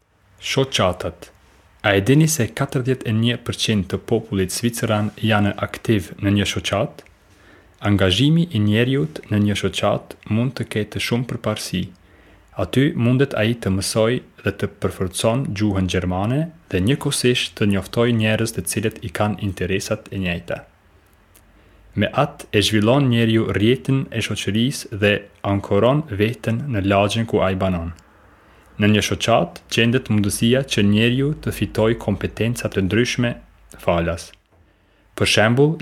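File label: Albanian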